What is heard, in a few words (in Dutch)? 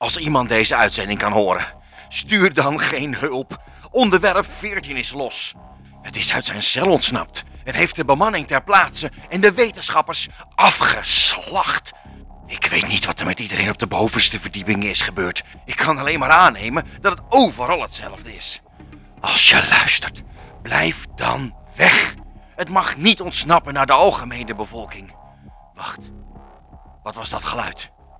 Karakter demo, animatie of cartoon; Beluister hier hoe ik kan klinken in jouw animatie of cartoon; voice-over